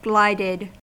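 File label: "glided", US English; pronunciations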